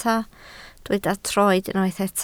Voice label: "troed", Welsh